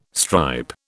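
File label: "stripe", British English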